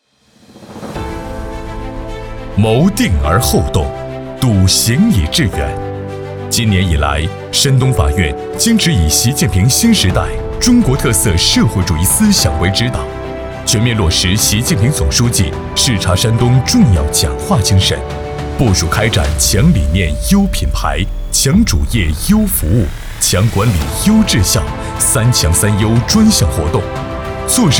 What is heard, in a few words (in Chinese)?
男692 专题; 以 三强三优 专项活动为抓手